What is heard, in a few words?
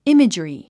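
"imagery", English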